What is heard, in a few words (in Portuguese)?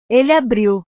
"ele abriu", portugues; verbos